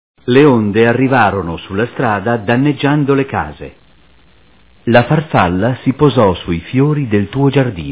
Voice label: pcm 64